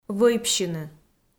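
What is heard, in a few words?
"вӧйпсьыны", Komi, female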